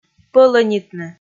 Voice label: "пӧлӧнитны", Komi

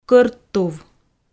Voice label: "кӧрт тув" Komi

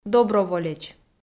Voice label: "доброволеч", Komi

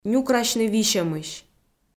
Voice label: "нюкрасьны висьӧмысь", Komi

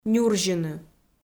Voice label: "нюрзьыны", Komi

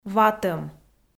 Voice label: "ватӧм" kpv f

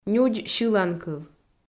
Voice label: "нюдз сьыланкыв", Komi

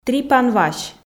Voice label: "трипан вась", f

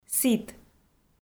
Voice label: "сіт", Komi

